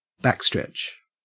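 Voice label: "backstretch" Australian English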